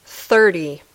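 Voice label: "thirty", English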